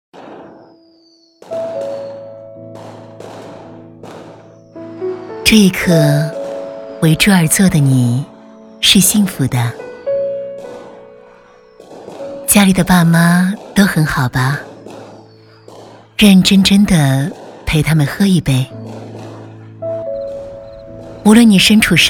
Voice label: 女 书信; 餐桌上的来信

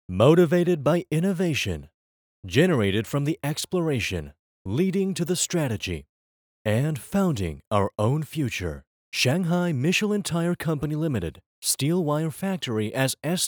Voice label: 米其林解说词 配音

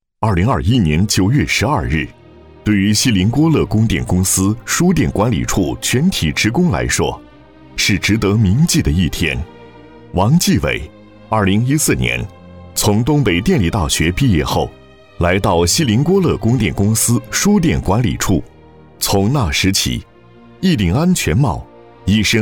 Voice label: 男 专题 人物专题

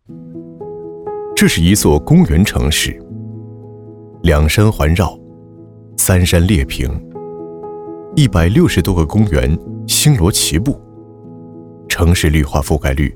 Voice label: 男 宣传片; 渝北空港新城沙盘

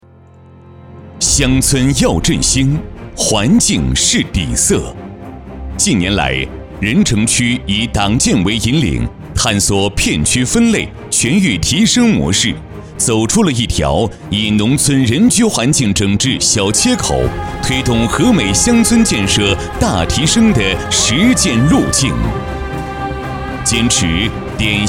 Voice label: 男 专题 党建; 任城

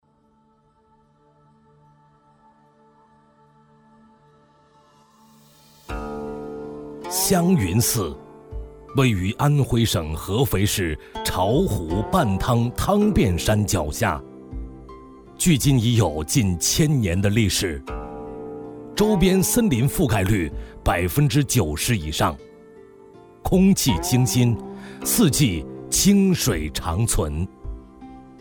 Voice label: 男, 广告配音; 香云寺

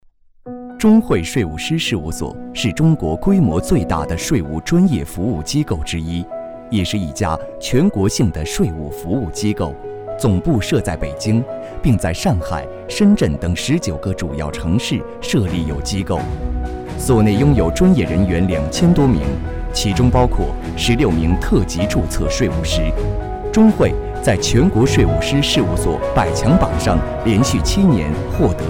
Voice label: 男 年轻

